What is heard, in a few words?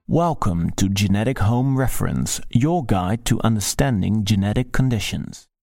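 Dutch English male corporate; accent of the netherlands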